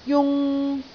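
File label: "jung3", Cantonese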